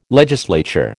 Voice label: "legislature", American

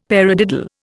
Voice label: "paradiddle", Indian; Dictionary

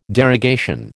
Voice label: "derogation", American

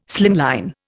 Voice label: "slimline", Indian

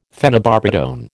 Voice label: "phenobarbitone", American

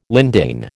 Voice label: "lindane", American

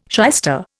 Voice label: "shyster", Indian

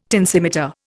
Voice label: "tensimeter", Indian

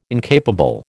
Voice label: "incapable", American

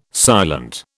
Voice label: "silent", British

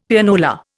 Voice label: "Pianola", Indian; Dictionary

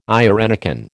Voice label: "irenicon", American

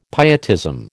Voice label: "pietism", American

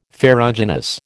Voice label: "ferruginous", American

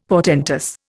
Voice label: "portentous", Indian; Dictionary

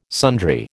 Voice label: "sundry", American

